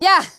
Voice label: Idle, ger; azurlane hoi4; azurlane sr reforged

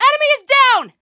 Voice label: american Positive; azurlane hoi4; azurlane sr reforged

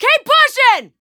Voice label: american Positive; azurlane hoi4; azurlane sr reforged

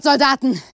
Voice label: Idle, ger; azurlane hoi4; azurlane tj

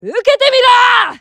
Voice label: japanese Positive; azurlane hoi4; azurlane tj

